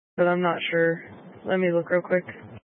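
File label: Sheriff Dispatch, Scanner audio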